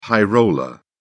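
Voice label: "high roller", English, British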